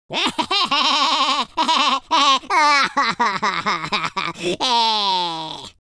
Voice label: happy, speech, Madchester